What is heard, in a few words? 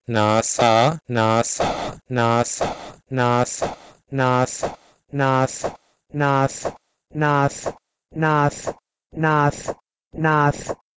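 Proto-Indo-European nh2seh2 to Punjabi naas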